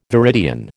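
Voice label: "viridian", American English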